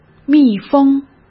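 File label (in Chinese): "密封", Mandarin Chinese; hsk; chinese-flashcards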